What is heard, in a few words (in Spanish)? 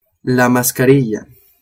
"mascarilla", spanish